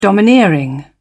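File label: "domineering", British English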